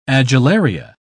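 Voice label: "adularia", British English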